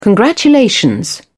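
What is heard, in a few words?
"congratulation", British English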